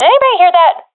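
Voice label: heard sound, professional female; Counter Strike Model Pack; CS:GO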